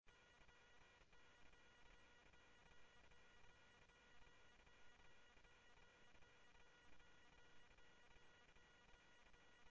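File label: Mesa Police Department, scanner audio; MESA PD Superstn MesaPoliceDep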